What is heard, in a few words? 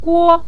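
"guo", Mandarin Chinese, neutral tone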